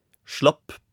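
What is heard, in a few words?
"slapp", Norwegian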